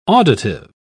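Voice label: "auditive", British English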